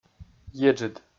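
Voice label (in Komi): "еджыд", Komi, male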